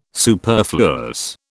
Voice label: "superfluous", British